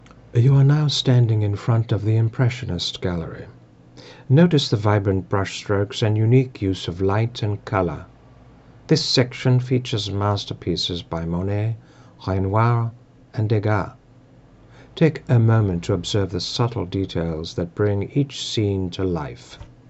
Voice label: Tourism n Travel Museum Audio Guides, voice over narration